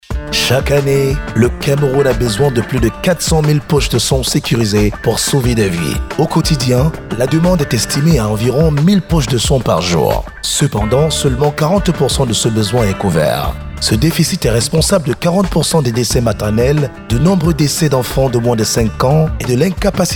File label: spot radio